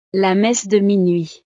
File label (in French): "la messe de minuit", frances; navidad